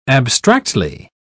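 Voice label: "abstractly", English, British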